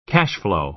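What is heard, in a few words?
"cash flow", American English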